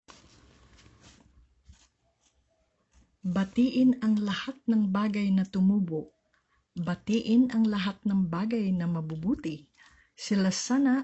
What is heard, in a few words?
tagalog